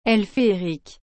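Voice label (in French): "ailes féériques", French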